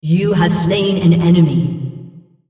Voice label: female On Champion Kill You Hero